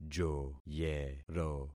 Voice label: "joyero", concat syllables; Affixing experiment

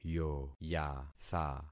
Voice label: "yoyatha", concat syllables; Affixing experiment

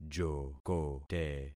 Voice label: "jokote", concat syllables; Affixing experiment

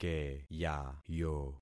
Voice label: "keyayo", concat syllables; Affixing experiment

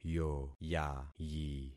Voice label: "yoyayi", concat syllables; Affixing experiment

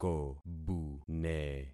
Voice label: "kobune", concat syllables; Affixing experiment

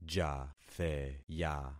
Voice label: "jatheya", concat syllables; Affixing experiment

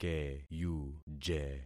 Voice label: "keyuje", concat syllables; Affixing experiment

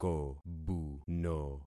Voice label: "kobuno", concat syllables; Affixing experiment

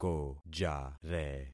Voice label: "kojare", concat syllables; Affixing experiment

